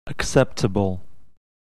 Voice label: english spelling practice